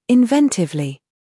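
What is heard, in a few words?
"humor", British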